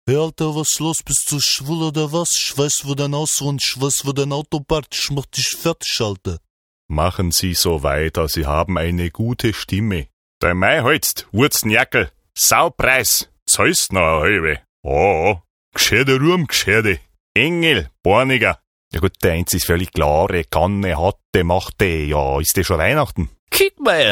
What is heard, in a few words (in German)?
Trailer, Parodien, Dialekte